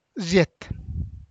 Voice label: zët, bone, Santiago Matatlán Zapotec; hueso